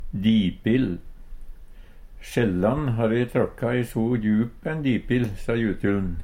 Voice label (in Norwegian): "dipil", Numedalsmål; Substantiv hankjønn